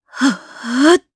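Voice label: Casting, Japanese; voices; heroes; kingsraid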